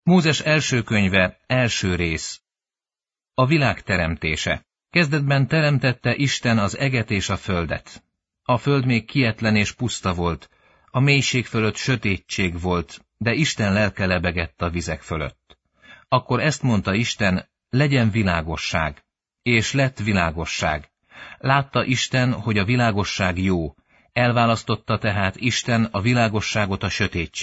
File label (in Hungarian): hungarian bible